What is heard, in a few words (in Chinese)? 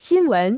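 "新闻" Chinese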